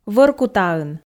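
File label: "вӧркутаын", Komi, female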